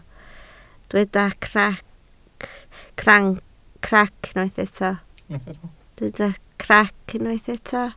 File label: "crac", Welsh